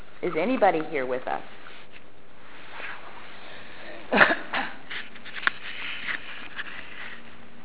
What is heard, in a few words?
male voice at 2 secs, temple